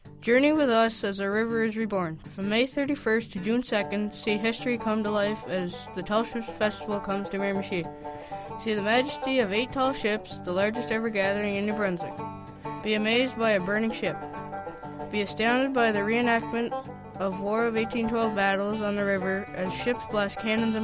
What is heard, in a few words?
radio ad